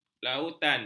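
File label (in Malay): "lautan", Malay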